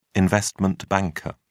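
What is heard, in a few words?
"investment banker", British English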